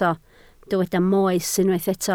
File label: "moes", Welsh